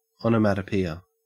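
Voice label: "onomatopoeia" Australian English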